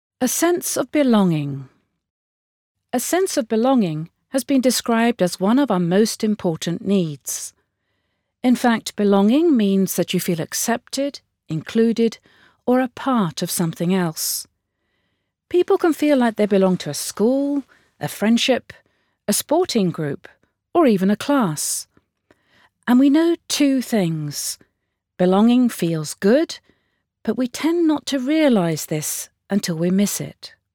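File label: British fagbok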